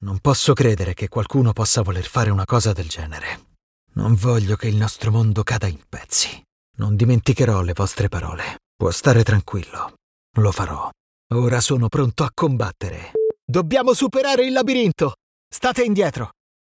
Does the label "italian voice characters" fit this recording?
Yes